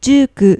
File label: "juuku", Japanese